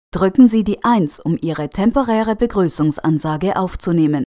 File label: Record Temporary Greeting, german